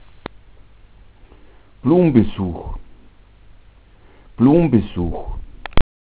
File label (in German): blumbesuch, bayerischen Dialekt; Flurnamen; Lateinische Sprachrelikte im bayerischen Dialekt